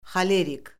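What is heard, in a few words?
"xolerik", Azerbaijani; Orfoqrafiya Lüğəti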